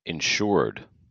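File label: "insured", US English pronunciation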